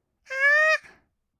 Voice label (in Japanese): あー, kawaii voice; ASMR; 色々なワンショット; 1200 kawaii voice!!